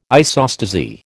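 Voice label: "isostasy", American English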